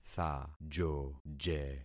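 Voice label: "thajoje", concatenated syllables; Affixing experiment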